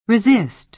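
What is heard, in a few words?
"resist", American English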